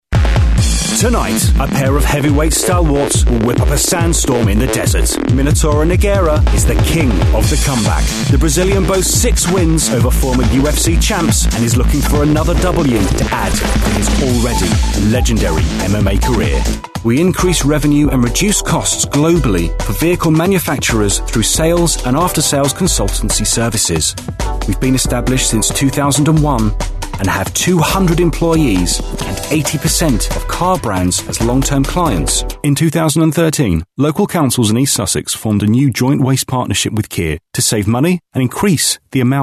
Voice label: commercial